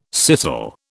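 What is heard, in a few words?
"scissel", British English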